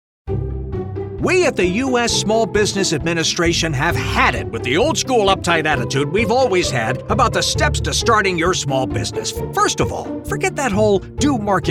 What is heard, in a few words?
E-Learning